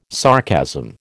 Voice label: "sarcasm", American